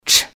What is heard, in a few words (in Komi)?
"тш", Komi